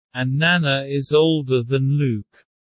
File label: low; Animal friends